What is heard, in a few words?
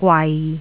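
"gwai6", Cantonese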